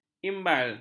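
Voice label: "imbal", Malay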